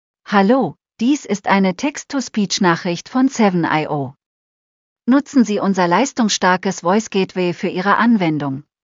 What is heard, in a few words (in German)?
voice beispiel de